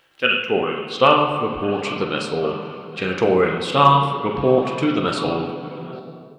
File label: veteran pa; gmod; star wars battlefront 2 galaxy map; rp fortmithraw